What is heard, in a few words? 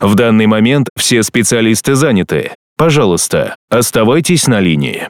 M IVR